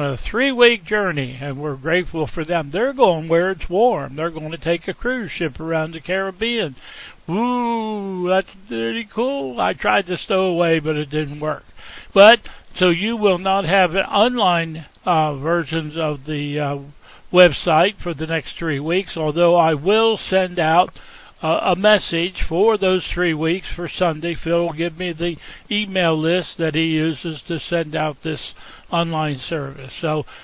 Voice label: Welcome and Announcements